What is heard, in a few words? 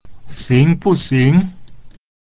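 "xing2bu4xing2", Mandarin Chinese; alright-not-alright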